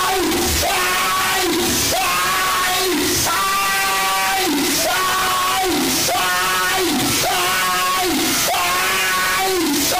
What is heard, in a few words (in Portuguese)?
aiiiiiiiiiiiiiiiiiiiiiii estourado